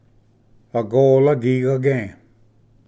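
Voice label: "agola gigage", Cherokee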